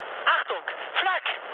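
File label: German damaged aa mood med, speech; War Thunder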